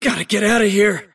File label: teleport pain; davion; dota